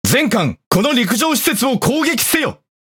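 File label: Speech Quick Commands Enemy Building, Japanese; World Of Warships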